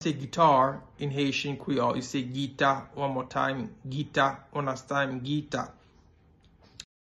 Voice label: "Gita", Haitian Creole; Guitar